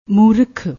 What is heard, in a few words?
"moorakh", Punjabi